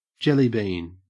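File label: "jellybean", Australian English; pronunciations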